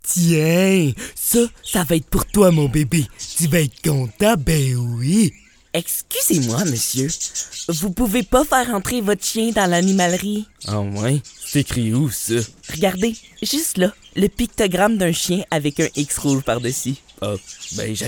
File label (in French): publicite mix; publicite mondou